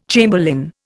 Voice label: "chamberlain", Indian